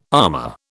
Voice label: "amah", British

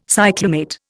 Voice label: "cyclamate", Indian

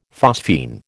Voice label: "phosphine", American

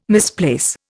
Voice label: "misplace", Indian

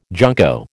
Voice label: "junco", American

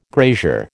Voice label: "grazier", American

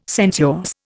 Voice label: "sensuous", Indian

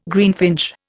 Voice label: "greenfinch", Indian

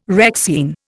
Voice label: "Rexine", Indian

